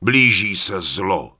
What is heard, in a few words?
Human Male Warrior NPC Vendor; wowpreklad; czwow